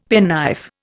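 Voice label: "penknife", Indian English; Dictionary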